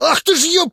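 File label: damage, Ukrainian; combat; human; monsters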